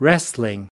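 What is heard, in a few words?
"wrestling", US English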